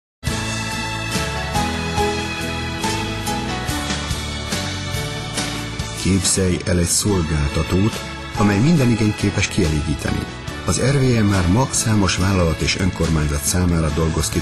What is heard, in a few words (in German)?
werbespot; rwe